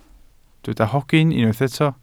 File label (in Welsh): "hogyn" Welsh